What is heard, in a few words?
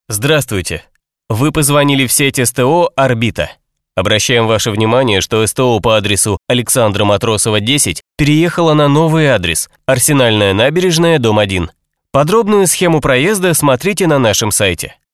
M IVR